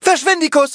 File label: hit, de